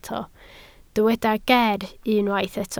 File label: "geer", Welsh